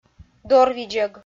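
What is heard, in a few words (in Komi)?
"дорвидзӧг", Komi, female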